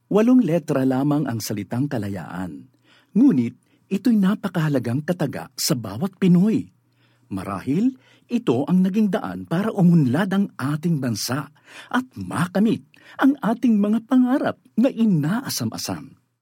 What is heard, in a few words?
Tagalog (Story Telling)